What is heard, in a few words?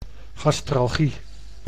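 "gastralgie", Dutch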